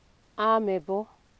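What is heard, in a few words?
"amebo", Nigerian Pidgin, female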